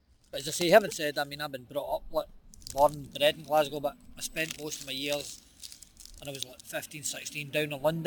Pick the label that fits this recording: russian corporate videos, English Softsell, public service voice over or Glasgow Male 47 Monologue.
Glasgow Male 47 Monologue